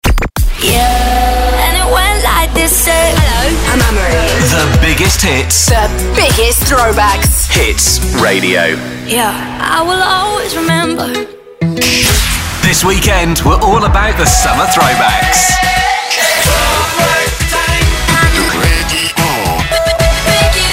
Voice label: Imaging VO; radio station sound, youtube branding, podcast intros